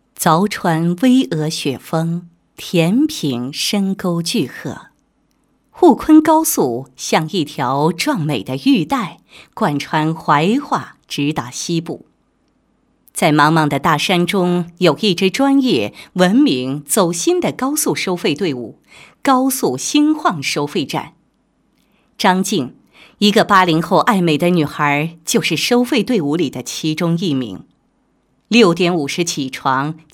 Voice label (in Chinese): 女 人物; 收费站职员张某事迹